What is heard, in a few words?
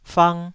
"fang2", Taiwanese